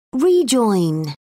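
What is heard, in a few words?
"rejoin", British English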